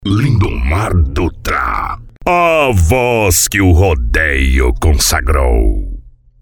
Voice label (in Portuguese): Locutor Vinhetas OFF